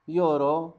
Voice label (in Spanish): "aguacero", Zapoteco Magdalena Ocotlan; Fenómenos; INALI; Haspelmath